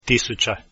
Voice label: 1000 croatian, numbers